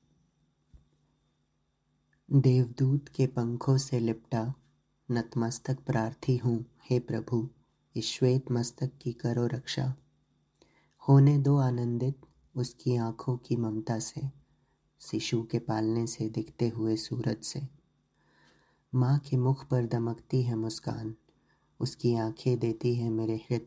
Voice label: hindi